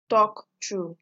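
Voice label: "talk true", Nigerian Pidgin, female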